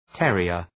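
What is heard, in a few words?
"terrier", English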